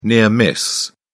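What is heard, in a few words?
"near miss", British English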